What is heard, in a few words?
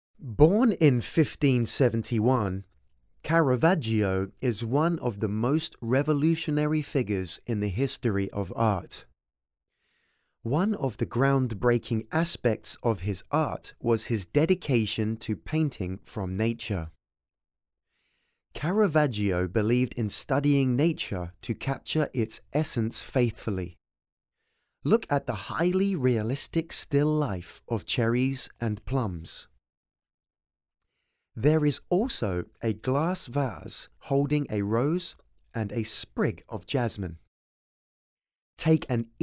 English, audio guide